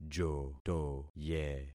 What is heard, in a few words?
"jotoye", concat syllables; Affixing experiment